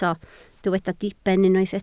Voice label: "diben", Welsh